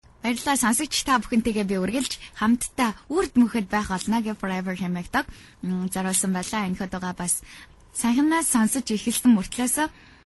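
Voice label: Mongolian, female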